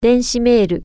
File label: email, japanese